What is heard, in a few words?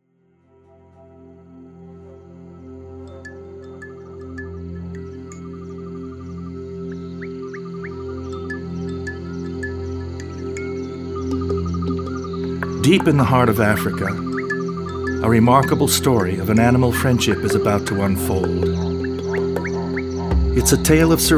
Wild Dog Doc Film